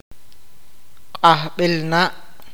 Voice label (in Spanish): tia la hermana mayor de su padre, awakateko